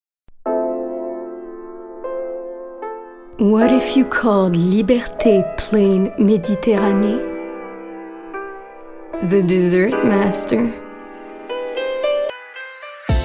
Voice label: English, Pub